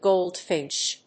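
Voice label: "goldfinch", English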